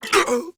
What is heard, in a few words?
Pain Grineer Ghoul Sarge, en; Grineer Ghoul Drill Sargeant; Battle Barks